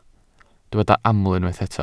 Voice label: "aml", Welsh

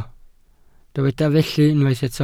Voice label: "felly", Welsh

